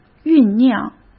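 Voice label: "酝酿", Mandarin Chinese; chinese flashcards; hsk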